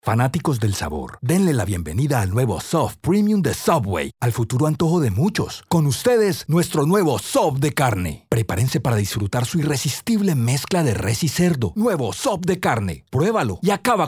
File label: DRY VOICE